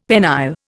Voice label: "penile", Indian; Dictionary